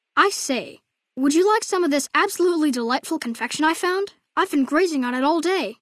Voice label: Male child greeting; FalloutNV

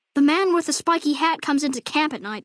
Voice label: Male child greeting; Fallout NV

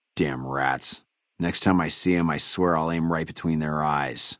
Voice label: male adult greeting; FNV

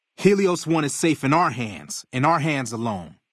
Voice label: male adult greeting; Fnv

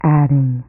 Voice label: Announce Voice Adding; BlastChamber PSX

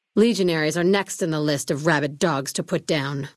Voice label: female adult greeting; Fnv

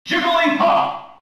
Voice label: Japanese Jigglypuff announcement; Smash Bros

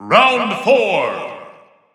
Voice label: Narration Round 4; Smash Wii U

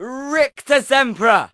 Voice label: male duel; GMO

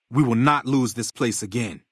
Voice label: male adult greeting

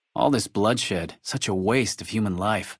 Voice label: dialogue greeting; FNV

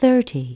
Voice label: Announce Voice Thirty; BlastChamber PSX

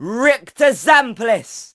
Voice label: Male Duel

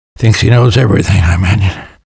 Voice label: fish mumble; Half-Life 2; Lost Coast

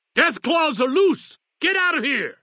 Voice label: male old greeting; Fnv

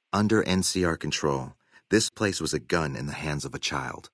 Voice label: male adult greeting; Fnv

